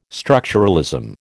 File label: "structuralism", American